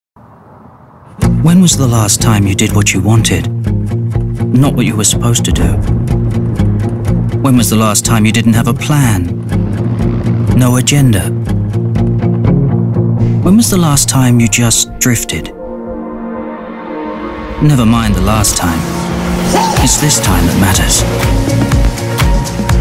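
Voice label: Mercedes-Benz GLA Commercials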